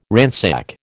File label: "ransack", American